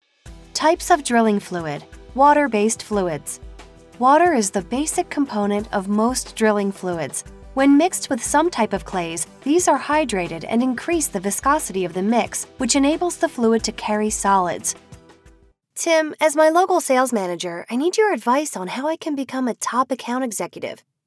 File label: e-Learning